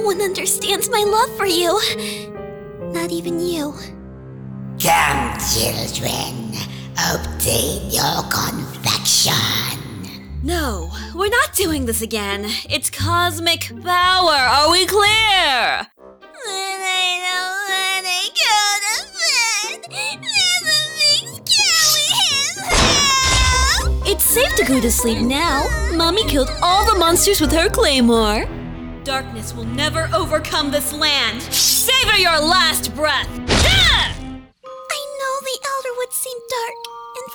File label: Character